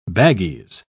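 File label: "baggies", British English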